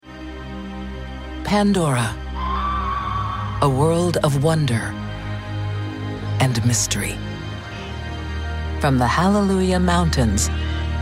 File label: Trailers; planet pandora